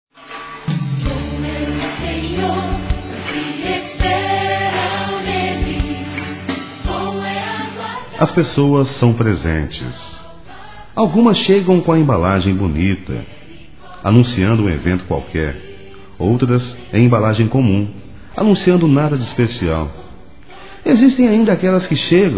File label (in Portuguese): Evangélico Aniversário Atrasado Masculino